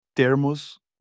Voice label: water bottle in turkish